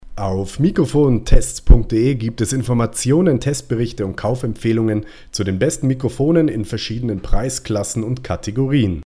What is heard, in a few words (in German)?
USB Mikrofon Test Pegel90 Abstand nah; Samson Meteor Mic